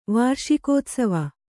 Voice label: "ವಾರ್ಷಿಕೋತ್ಸವ", kannada

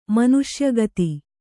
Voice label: "ಮನುಷ್ಯಗತಿ", Kannada

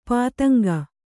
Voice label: "ಪತಂಗ", Kannada

